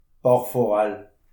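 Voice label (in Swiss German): "bachforell", Alsatian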